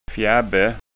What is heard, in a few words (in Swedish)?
"fjabbe", skanskaord